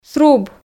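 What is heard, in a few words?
"сруб", Komi, female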